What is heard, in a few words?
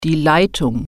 "pipe", German